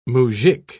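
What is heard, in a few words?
"mujik", British English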